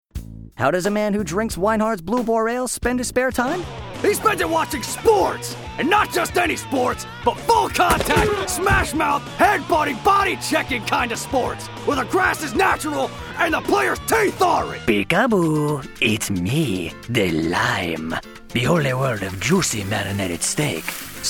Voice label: commercials